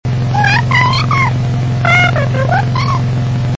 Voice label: sample high, voice